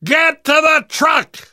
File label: arrive truck, survivor voice